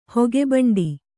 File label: "ಹೊಗೆಭಂಡಿ", Kannada